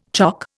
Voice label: "chock", Indian